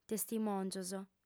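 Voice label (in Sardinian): "testimòngios", female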